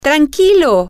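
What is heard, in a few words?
calm down, Spanish, female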